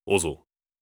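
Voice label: question short, voice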